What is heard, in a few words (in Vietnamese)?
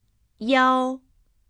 "iao", tieng trung; nguyên âm